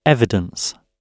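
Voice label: "evidence", British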